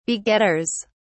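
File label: "begetters", en, uk British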